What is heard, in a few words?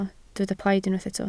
"plaid", Welsh